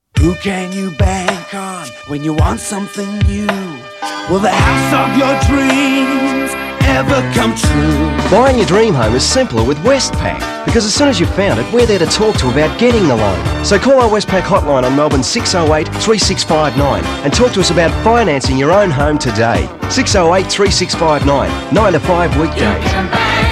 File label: Homeloan Voice Over; Westpac